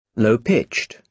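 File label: "low-pitched", British English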